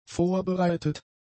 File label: "vorbereitet", German; adjectives